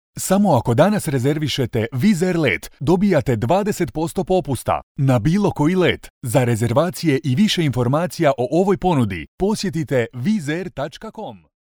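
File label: Bosnian, male, commercial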